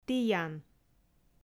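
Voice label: "тіян", Komi, female; audio dict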